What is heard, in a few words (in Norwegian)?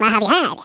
"drop", Norwegian; Speech; 11khz